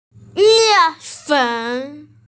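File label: "mian fen", Mandarin Chinese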